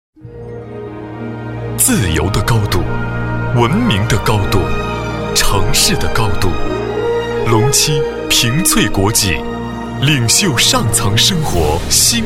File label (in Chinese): 广告 地产; 龙栖